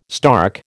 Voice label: "stark", American